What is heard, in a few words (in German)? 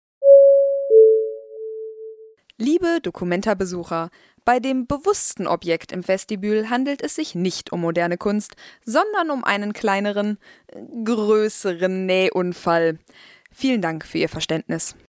durchsage